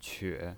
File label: "que3", pinyin